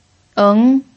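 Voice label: "eng", Mandarin Chinese, second tone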